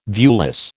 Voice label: "viewless", American

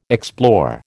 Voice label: "explore", American

